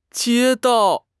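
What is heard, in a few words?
"jie1 dao4", Chinese; HSK; HSK3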